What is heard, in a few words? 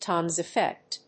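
"Toms effect", English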